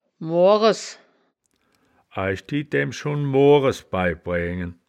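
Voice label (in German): "moores", Wittlicher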